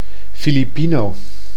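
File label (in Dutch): "Filipino", Dutch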